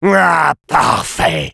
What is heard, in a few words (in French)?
Sniper cheers, fr